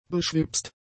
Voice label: "beschwipst", german; adjectives